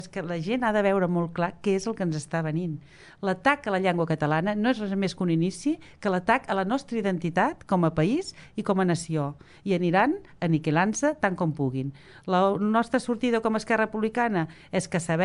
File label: català